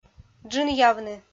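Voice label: "джынъявны", Komi, female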